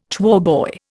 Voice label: "choirboy", Indian

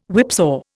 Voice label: "whipsaw", Indian

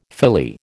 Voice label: "Philly", American

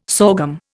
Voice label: "sorghum", Indian

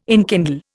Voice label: "enkindle", Indian

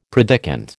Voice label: "predikant", American

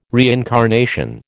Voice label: "reincarnation", American

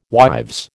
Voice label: "wives", American

